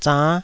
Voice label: "zann5", taiwanese syllables